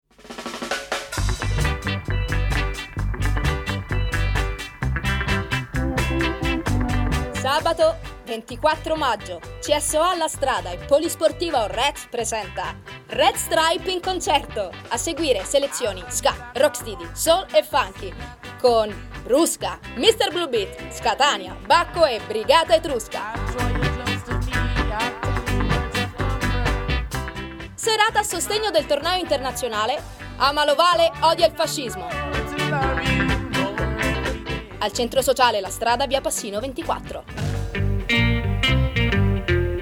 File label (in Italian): spot